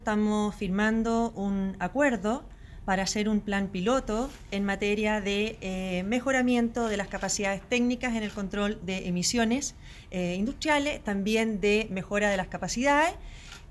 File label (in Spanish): Cuña ministra; ministra Cubillos